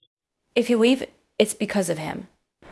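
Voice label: Voice Acting Spanish Female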